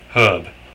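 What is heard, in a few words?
"herb", British English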